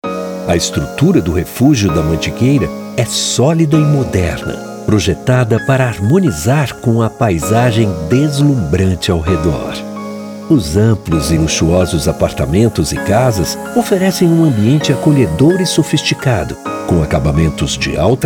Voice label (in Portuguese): Baiano IMOBILIARIO